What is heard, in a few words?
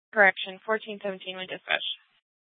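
Scottsdale Police Department South Dispatch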